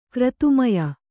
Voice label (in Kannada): "ಕ್ರತುಮಯ", Kannada